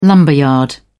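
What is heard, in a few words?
"lumberyard", British English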